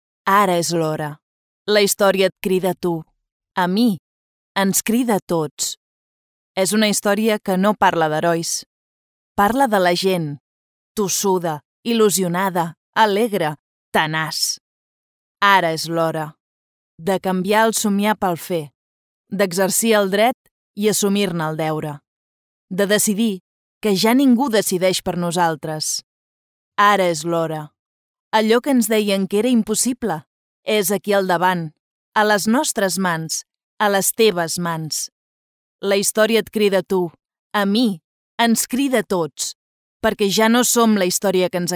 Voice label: Politics Catalan